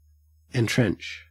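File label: "entrench", Australian English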